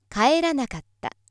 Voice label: female